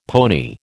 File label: "pony", American